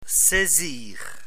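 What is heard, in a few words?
"saisir", French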